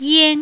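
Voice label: "yan", Chinese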